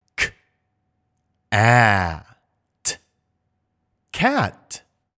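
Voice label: C A T Cat, english pronunciation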